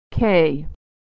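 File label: "k", American English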